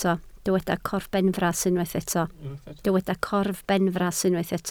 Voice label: "corbenfras", Welsh

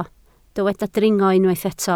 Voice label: "dringo", Welsh

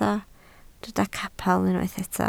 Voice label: "capel", Welsh

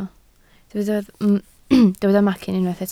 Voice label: "macyn", Welsh

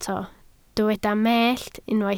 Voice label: "mellt", Welsh

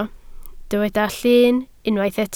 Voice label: "llun", Welsh

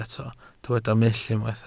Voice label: "mull", Welsh

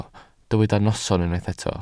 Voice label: "noson", Welsh

